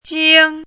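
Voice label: "jīng", Mandarin Chinese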